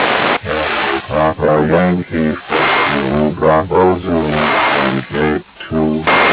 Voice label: Cape2 synthesized voice